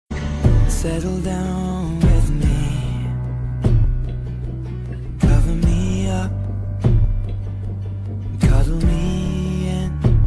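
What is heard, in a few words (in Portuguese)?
aniversario romantico masculino, telemensagem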